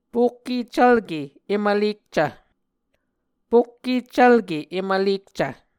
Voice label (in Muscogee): "pokkeccvlke em vlekcv", Muscogee; Mvskoke Words with Repeated Consonants; A Dictionary of Creek/Muskogee; SIL International